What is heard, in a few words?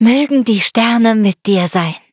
levelup, de; cookie run